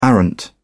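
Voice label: "arrant", British English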